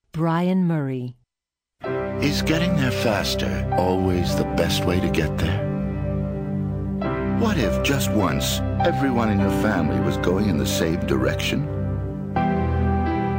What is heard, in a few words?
commercial